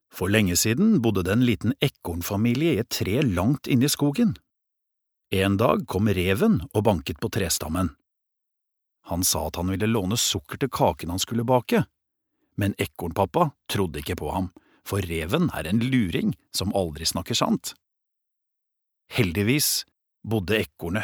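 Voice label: Norwegian childrens book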